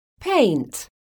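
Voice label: "PAINT", English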